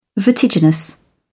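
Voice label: "vertiginous", British English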